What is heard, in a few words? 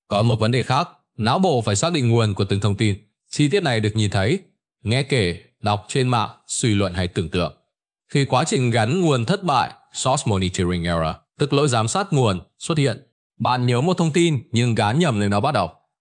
narration